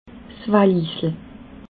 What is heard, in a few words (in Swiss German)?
"valis", alsace